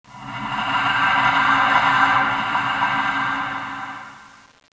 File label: strange talk; zp sounds